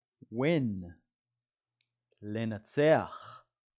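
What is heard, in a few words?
"win" English, "לנצח" Hebrew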